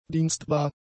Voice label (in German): "dienstbar", german; adjectives